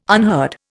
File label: "unheard", Indian